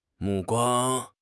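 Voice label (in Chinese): "目光", Chinese; HSK6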